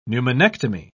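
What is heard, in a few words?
"pneumonectomy", British English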